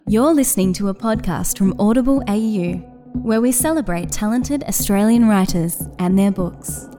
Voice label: Podcast

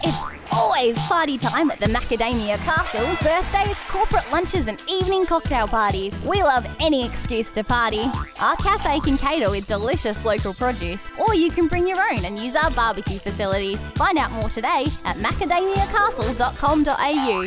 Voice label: Character Australian

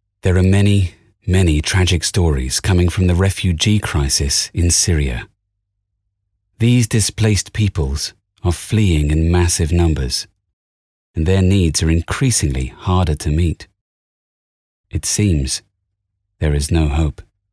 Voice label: VO UK sad reflective

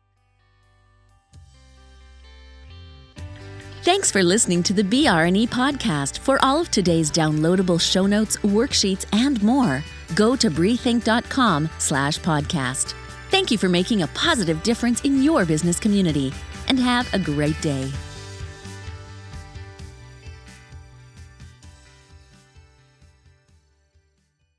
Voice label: Podcast

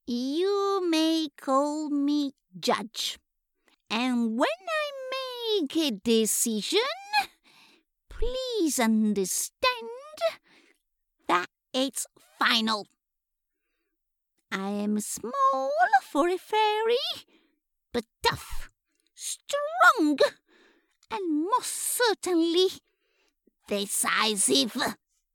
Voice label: Funny Cartoon voice English

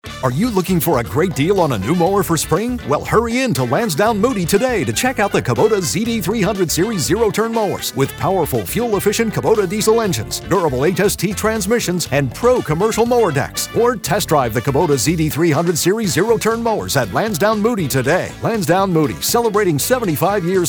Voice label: Television

